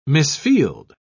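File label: "misfield", British English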